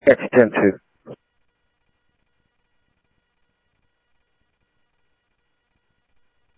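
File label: Sheriff Lake Patrol, scanner audio trunk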